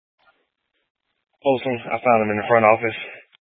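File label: Police Dispatch, Scanner audio recording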